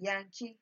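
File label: "yankee", Nigerian Pidgin, female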